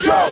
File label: "yo" men muffled; ooh ahh